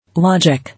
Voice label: "Logic", English